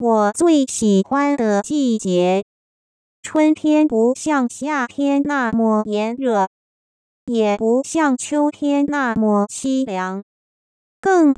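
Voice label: Synthetic Speech, Mandarin singing voice synthesis, Using linear time mapping and HNM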